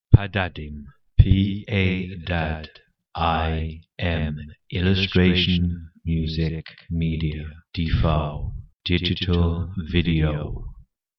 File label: VOICE Announce